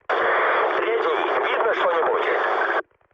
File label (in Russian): chat, speech ru; War Thunder